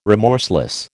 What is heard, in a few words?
"remorseless", American